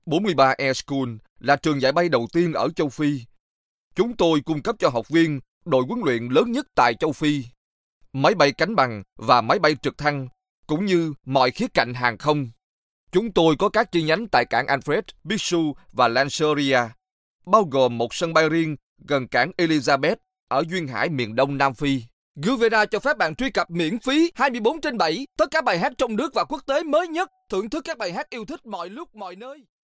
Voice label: Vietnamese

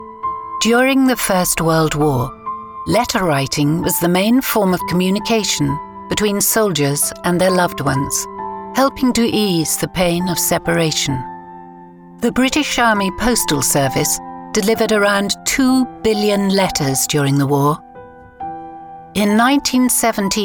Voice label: Narrative

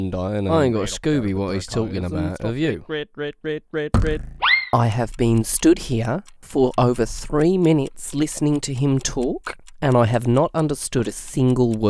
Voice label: Animation

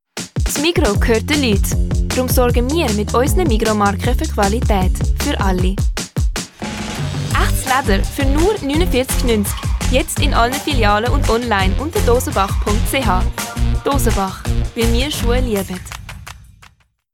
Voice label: Swiss German; European

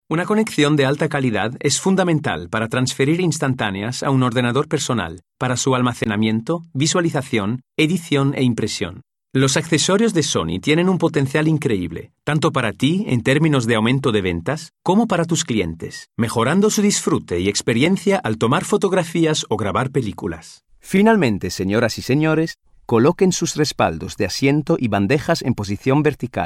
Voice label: Spanish

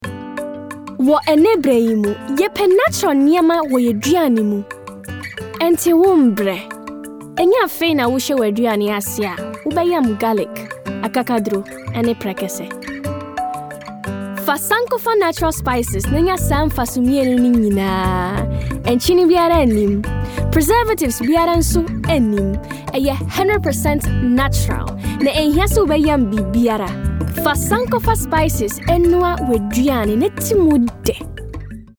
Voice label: Twi Commercial

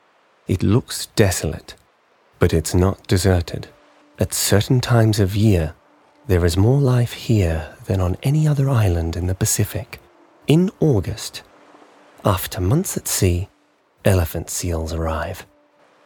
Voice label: Documentary; home studios

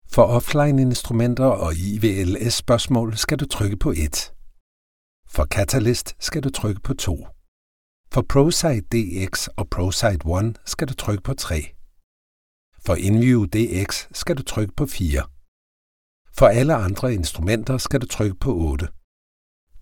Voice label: Danish

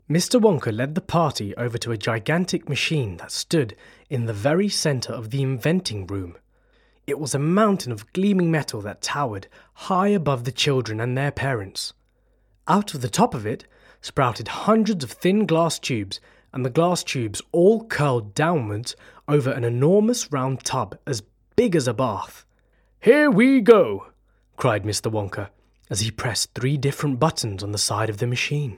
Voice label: Audiobook

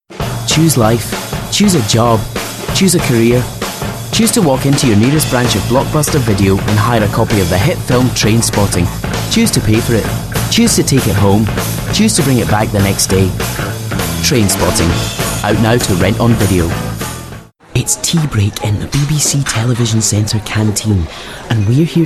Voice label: Scottish; 20s-30s. Male.

